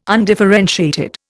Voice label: "undifferentiated", Indian